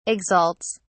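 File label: "exalts", British English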